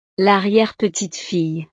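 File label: "l arriere petite fille", French; familia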